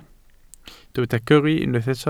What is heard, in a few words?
"gyrru", Welsh